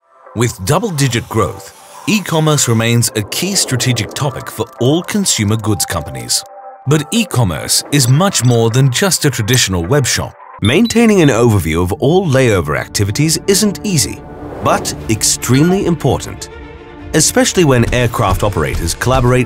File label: Mid Atlantic Neutral English Corporate